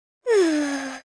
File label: sad, Hippy